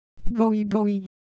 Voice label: Ewe Texture, IdeoPhones